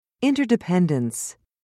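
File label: "interdependence", English, British English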